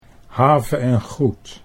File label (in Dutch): "have en goed", Dutch